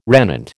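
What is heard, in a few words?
"regnant", American English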